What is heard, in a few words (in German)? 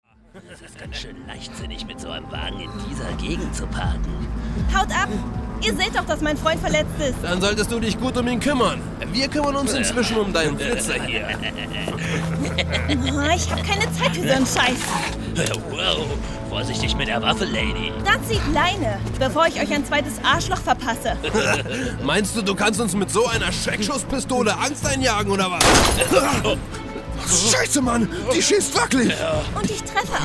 Sprechprobe Skinhead Hörspiel; Teen Agents